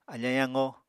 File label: "afañ yaqo", Apatani